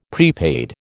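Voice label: "prepaid", American English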